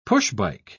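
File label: "push-bike", British English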